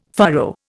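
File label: "furrow", Indian English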